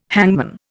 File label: "hangman", Indian